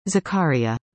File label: "Zakaria", english; indonesian boy names